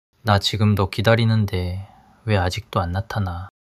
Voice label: sadness